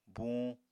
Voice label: "buñ", apatani

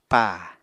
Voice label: "paa", apatani

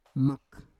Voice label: "mak", Roon

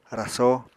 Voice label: "rasó", Apatani

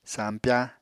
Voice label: "sampyá", Apatani

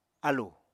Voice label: "álù", Apatani

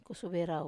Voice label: "kusuvei rau", Wamesa

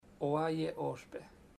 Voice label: you dont say, chamacoco; Bahia Negra

